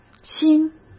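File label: "新", Mandarin Chinese; hsk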